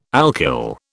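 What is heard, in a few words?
"alkyl", British English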